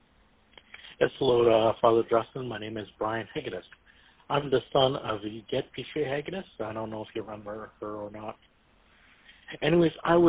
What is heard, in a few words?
Phone Message; Catholics